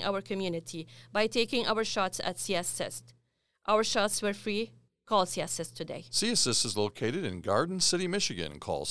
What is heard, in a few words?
Addressing Vaccine Myths Arabic Female